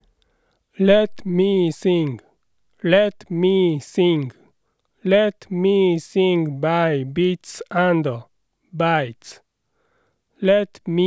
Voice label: speak male